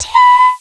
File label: Solfege, Claire's Voice; Opcode Systems